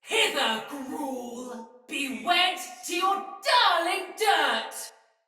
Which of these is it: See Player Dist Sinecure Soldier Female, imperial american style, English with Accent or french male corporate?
See Player Dist Sinecure Soldier Female